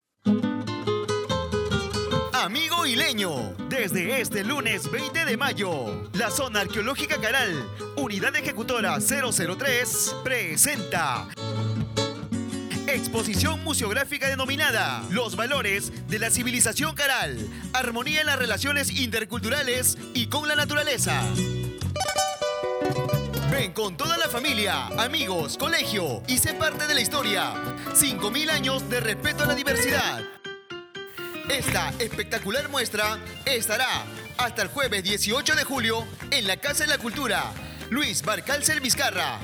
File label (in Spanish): Spot publicitario; ZAC Moquegua